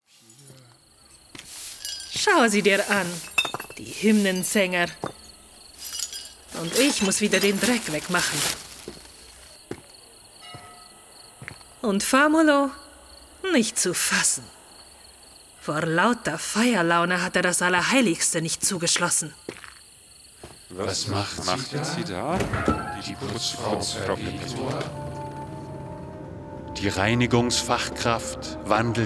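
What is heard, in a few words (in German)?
Hörspiel; Fantasy; Das Hoffnungslos; Perpetua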